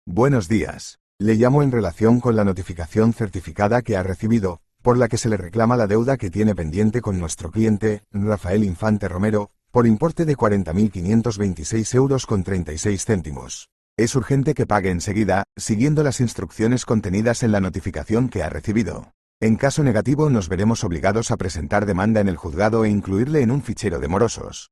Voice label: audio call